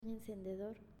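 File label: "Encendedor", Spanish, female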